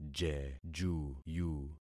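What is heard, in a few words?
"jejuyu", concatenated syllables; Affixing experiment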